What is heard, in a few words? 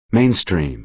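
"mainstream", American English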